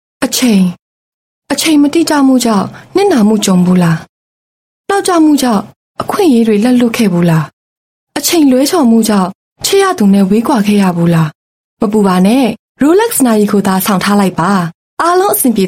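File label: Burmese Female TVC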